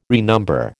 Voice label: "renumber", American

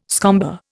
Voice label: "scomber", Indian

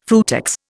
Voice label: "frutex", Indian

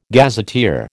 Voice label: "gazetteer", American

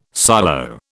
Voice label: "silo", British

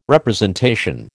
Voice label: "representation", American

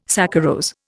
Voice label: "saccharose", Indian

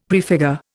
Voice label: "prefigure", Indian; Dictionary

